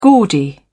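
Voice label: "gaudy", British English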